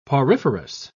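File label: "poriferous", British English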